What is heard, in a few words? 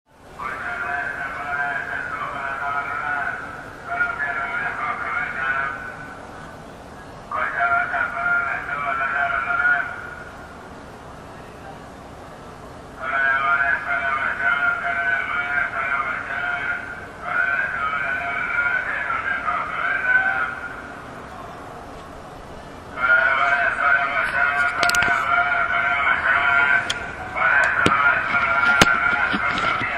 Mumbling Street Vendor